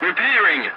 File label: voice message repairing; dialogs; War Thunder